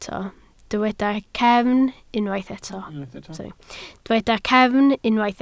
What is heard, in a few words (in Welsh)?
"cefn", Welsh